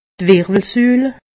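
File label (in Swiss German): "wirwelsul", Haut Rhin; medecine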